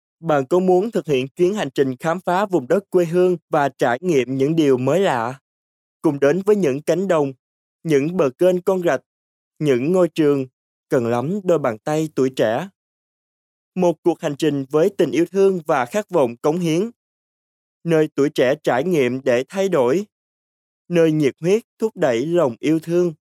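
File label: Vietnamese Vietnam male narrative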